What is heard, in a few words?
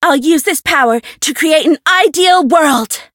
Voice variable chant; anaberu